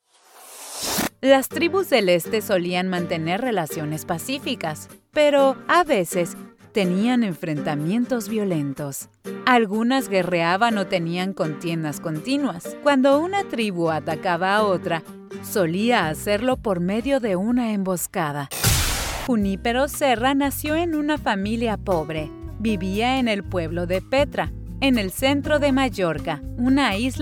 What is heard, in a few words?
spanish latin american documentaries